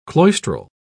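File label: "cloistral", British English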